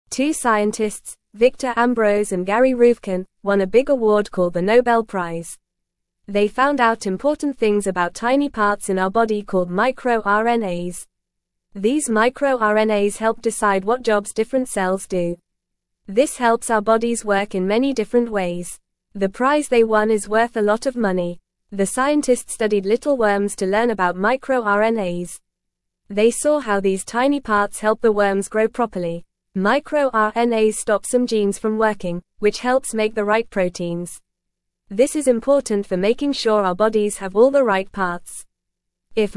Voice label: English Beginner FAST Reading; Scientists Win Nobel Prize for Discovering MicroRNAs

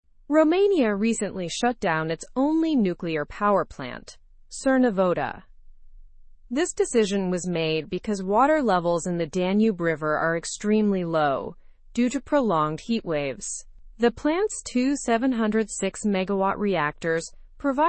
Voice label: learn english through news upper intermediate; heat forces shutdown of romania s nuclear plant

